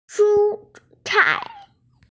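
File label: "shucai", Mandarin Chinese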